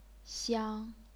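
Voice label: "xiang", chinese pinyin, first tone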